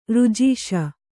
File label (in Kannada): "ಋಜೀಷ", Kannada